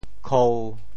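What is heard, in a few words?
"khou1", Teochew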